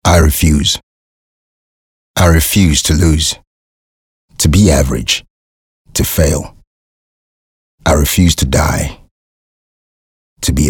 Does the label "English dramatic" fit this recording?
Yes